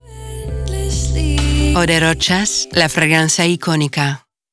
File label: ESP Eau de TV SPOT; Eau de ROCHAS; ICONICA